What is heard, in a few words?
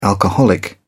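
"alcoholic", British English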